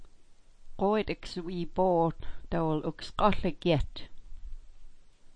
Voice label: "dawil", smalgyax